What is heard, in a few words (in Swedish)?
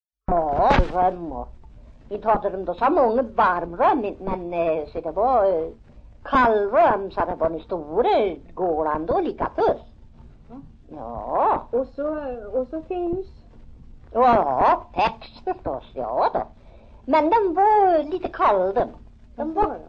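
Järvsö Hälsingland, dialekter; Folkminnen; Svenska sagor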